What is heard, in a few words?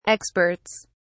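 "experts", English, British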